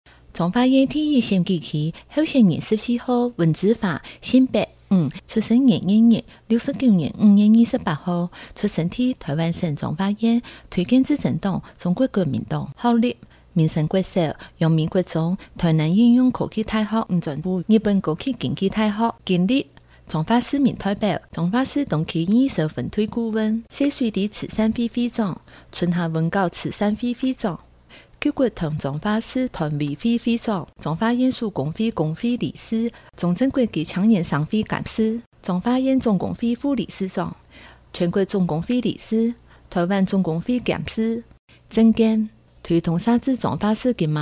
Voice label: 客語; 彰化縣; 有聲公報; 縣議員; 第一選區

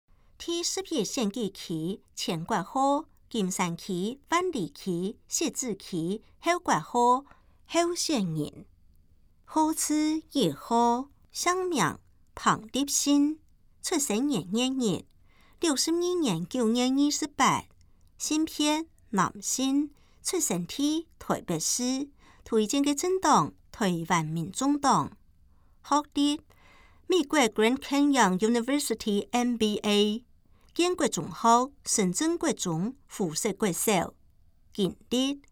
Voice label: 客語; 新北市; 市議員; 第11選區; 有聲公報

